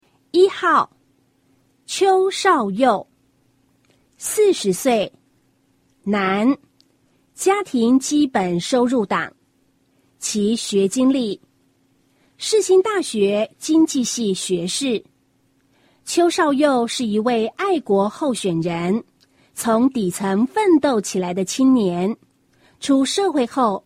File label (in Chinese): 國語版; 第11屆區域立法委員選舉; 基隆市; 有聲公報; 1號